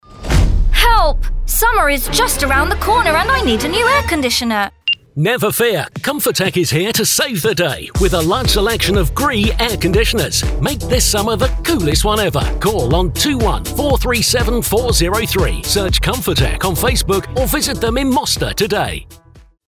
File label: RADIO; COMFORTEK